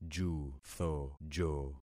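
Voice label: "juthojo", concatenated syllables; Affixing experiment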